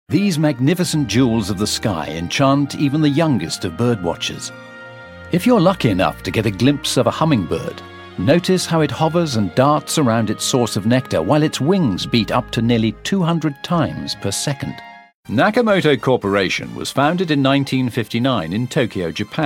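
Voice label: British, narration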